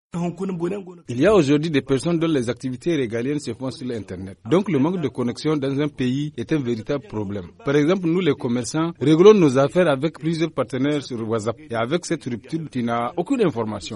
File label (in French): FR VOX POP COUPURE INTERENT